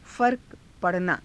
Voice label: farka pa ranaa, Hindi